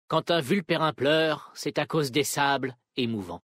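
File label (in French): French Homme Blague; Vulpérins; Emouvant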